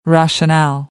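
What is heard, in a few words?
"rationale", American English; AmericanBritish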